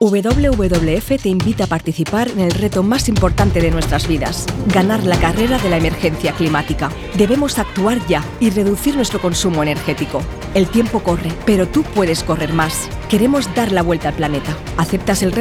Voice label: promo radio